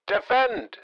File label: Military radio voice command defend